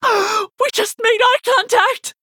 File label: Non-binary Night Elf Play